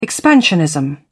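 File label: "expansionism", British English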